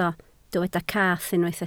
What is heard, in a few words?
"cath", Welsh